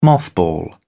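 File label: "mothball", British English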